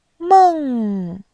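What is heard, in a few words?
"meng", Mandarin, tone 4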